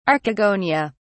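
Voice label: "archegonia", English, British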